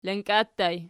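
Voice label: "lanka" ergative plural, Archi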